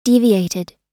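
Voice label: "deviated", American English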